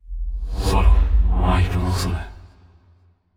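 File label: sad, voice; aragami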